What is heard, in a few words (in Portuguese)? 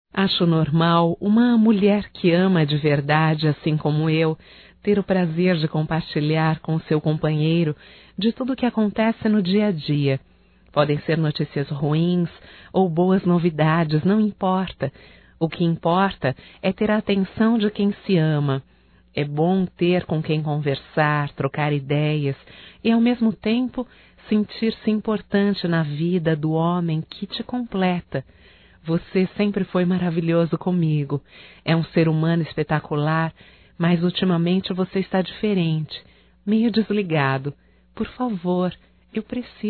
ME DE ATENÇAO(F), Românticas fem